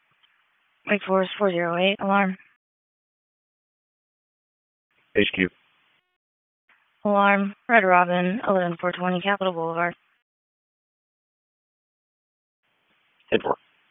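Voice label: Police Dispatch; Wake Forest Police Disp; p25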